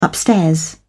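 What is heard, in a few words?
"upstairs", British English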